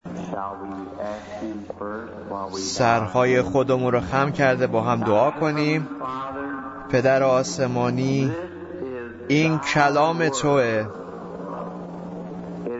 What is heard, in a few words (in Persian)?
Farsi Voice Over; Unwelcomed Christ